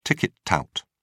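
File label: "ticket tout", British English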